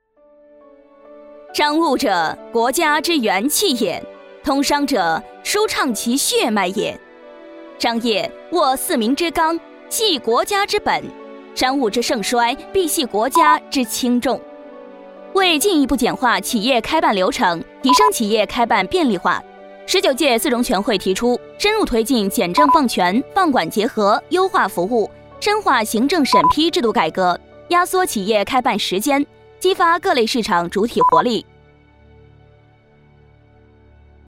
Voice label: 女二

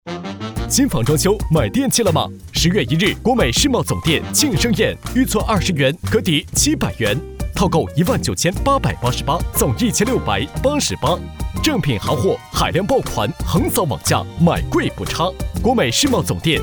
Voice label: 叫卖激情大气; 国美电器; 大促

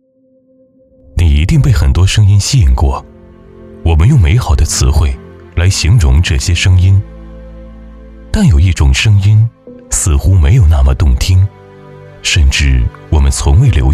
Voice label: 宣传片安全的声音

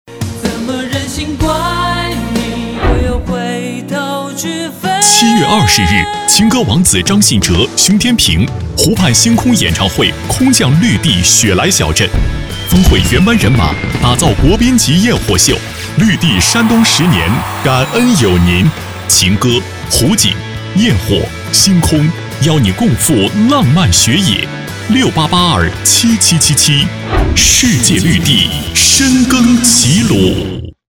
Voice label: 电台广告

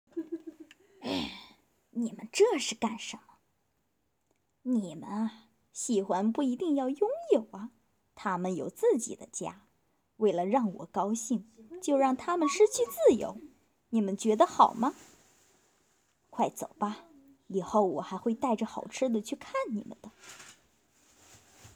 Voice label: 围裙妈妈配音, 女声 角色动画 配音; 大头儿子和小头爸爸

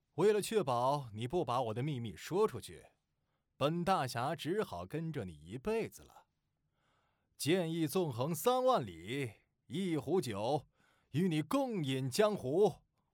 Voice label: 游戏样音

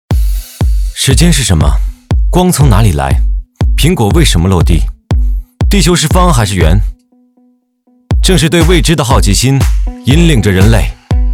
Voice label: 科技 节奏 广告

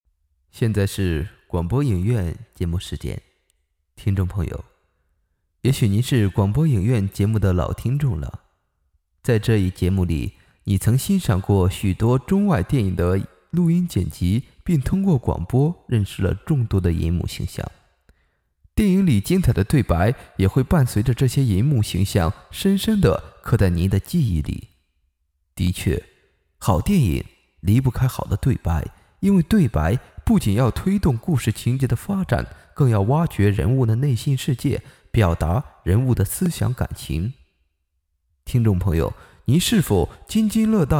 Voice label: 电台

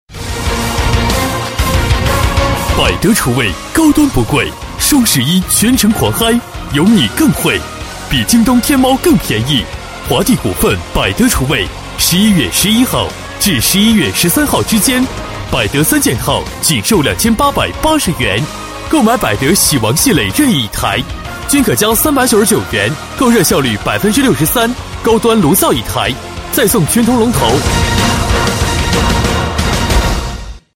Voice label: 促销 大气激情; 百德厨卫

